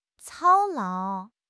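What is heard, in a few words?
"操劳", Mandarin Chinese; HSK; HSK6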